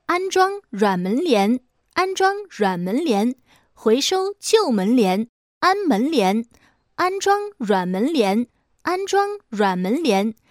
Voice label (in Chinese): 女 户外口播 安装软门帘